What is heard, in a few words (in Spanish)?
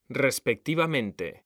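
"RESPECTIVAMENTE", Spanish; mekanta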